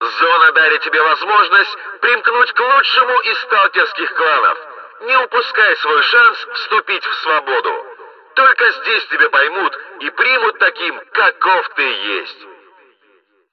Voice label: megaphone